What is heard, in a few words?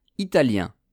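"italien", French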